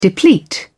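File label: "deplete", English, British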